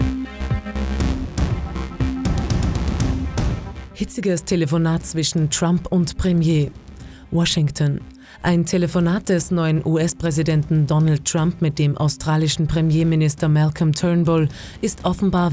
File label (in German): news politik